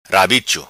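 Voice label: "Rabicho", patua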